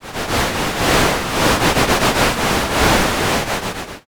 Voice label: whisper